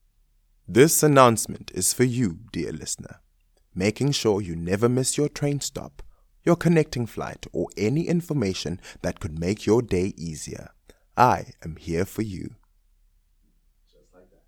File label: announcement